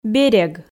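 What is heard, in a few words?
"берег", Komi, female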